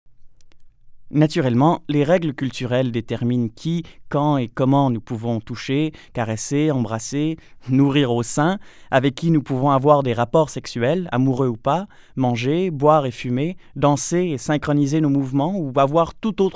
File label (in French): Narration